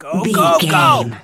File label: voice male soldier go go go